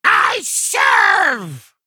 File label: Male Kobold Attack